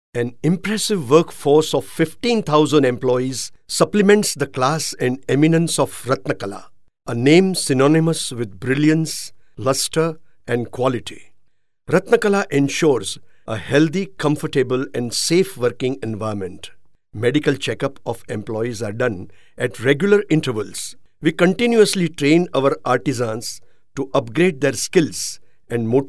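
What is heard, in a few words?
English Indian Gujarati Male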